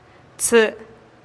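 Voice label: "tsï'", diez, Teotitlán del Valle Zapotec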